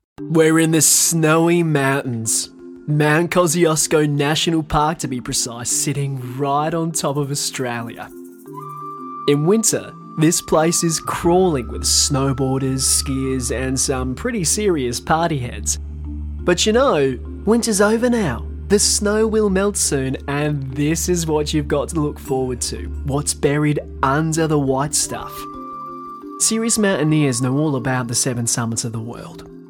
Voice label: Documentary

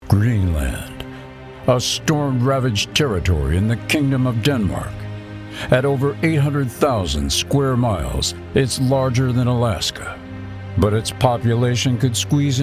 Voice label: Documentaries

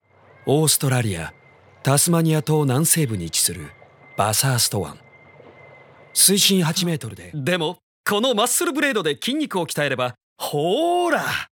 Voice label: Mix Japanese Short

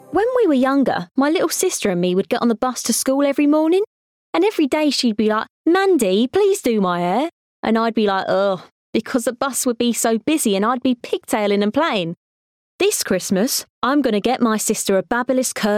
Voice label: Commercial

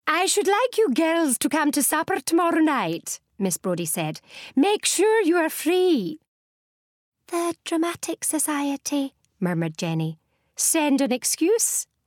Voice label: Audiobook Scottish

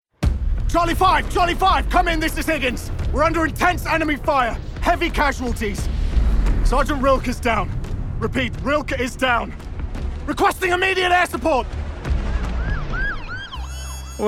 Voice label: Animation, voice

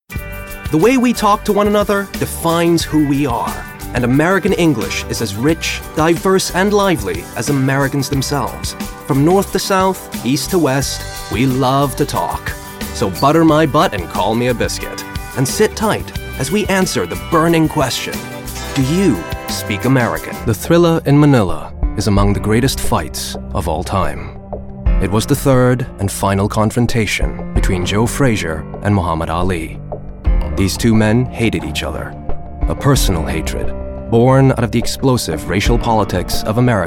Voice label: Narration

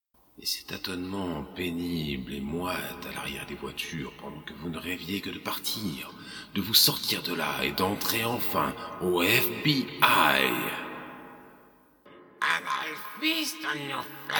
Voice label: animation

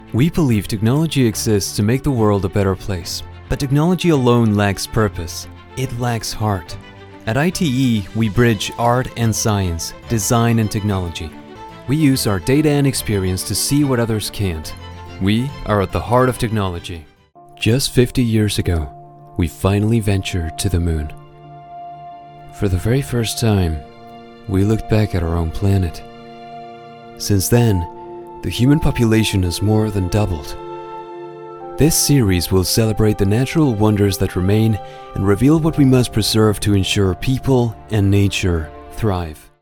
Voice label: English, Spanish-Latin (Mexico), Male; Studio, 20s-30s

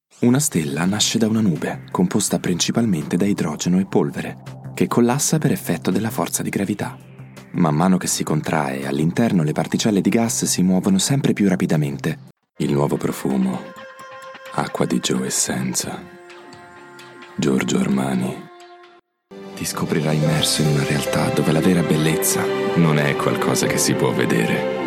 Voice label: Italian

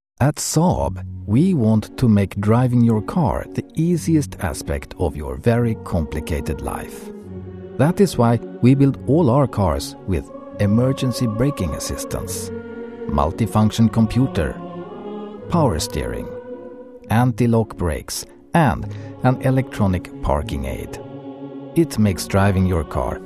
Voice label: Swedish, Male, English; Scandinavian; Studio, 30s-40s. Based in Sweden.

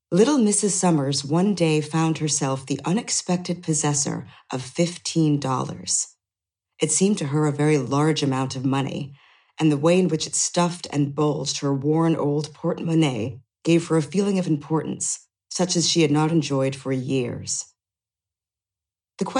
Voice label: audiobook

